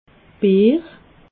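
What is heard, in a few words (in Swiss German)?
"beer", Alsatian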